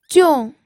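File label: "jiong4", Mandarin Chinese fourth tone; pinyin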